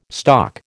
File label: "stock", American